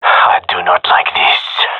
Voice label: scared, male; vo

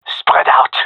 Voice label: gendermerie male; request spread out; Counter Strike Model Pack; CS:GO

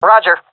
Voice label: affirmation, fem; vo; agents; Source Model Packs; Counter Strike Model Pack; CS2

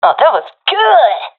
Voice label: sees enemy killed, professional fem; vo; Counter Strike Model Pack; CS:GO

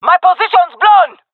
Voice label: taking fire, jungle fem; vo; agents; Source Model Packs; Counter Strike Model Pack; CS2; jungle fem epic

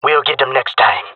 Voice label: round lost, jungle male; player vo; Counter Strike Model Pack; CS:GO

